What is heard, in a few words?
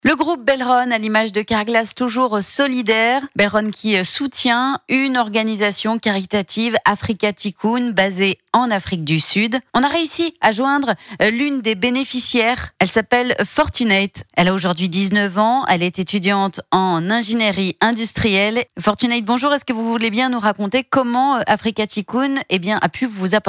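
interview with voice over French; Afrika Tikkun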